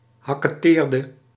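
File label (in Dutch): "hakketeerde", Dutch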